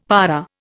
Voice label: "para", Indian; Dictionary